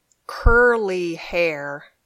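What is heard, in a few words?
"curly hair", English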